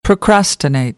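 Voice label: "procrastinate", American English; AmericanBritish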